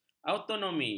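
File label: "autonomi", Malay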